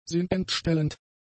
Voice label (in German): "sinnentstellend", german; adjectives